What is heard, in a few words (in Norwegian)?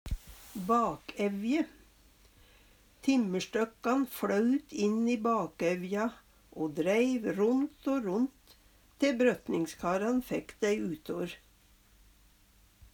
bakevje, Numedalsmål; Timmerstøkkan fløut inn i bakevja o dreiv ront o ront te brøtningskaran fækk dei utor